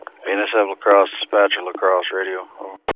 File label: La Crosse Radio